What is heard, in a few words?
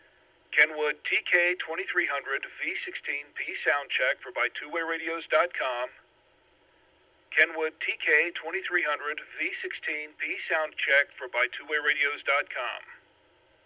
kenwood tk 2300v16p; radio samples